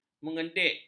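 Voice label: "mengedek", Malay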